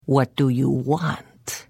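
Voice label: Hello, Khajiit female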